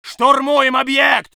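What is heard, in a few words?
voice soviet infantry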